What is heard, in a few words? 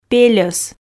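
"пельос", Komi, female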